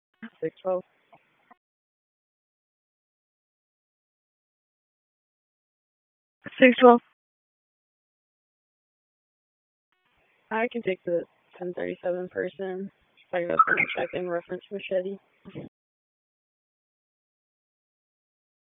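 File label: Police Southwest District Disp, radio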